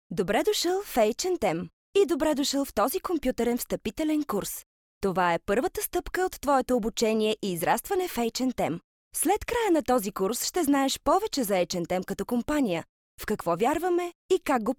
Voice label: bulgarian f e-learning; voice demos